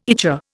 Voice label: "ichor", Indian